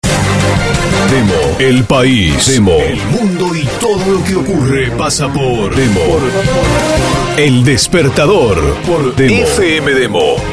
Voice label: PACK PERIODISTICO

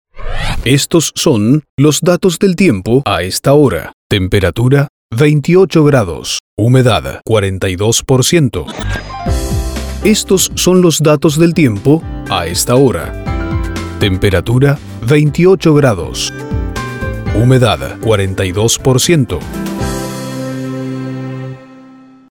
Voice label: PACK CLIMA ESP; para que tu audiencia esté en todo momento informada del estado actual del tiempo en la ciudad

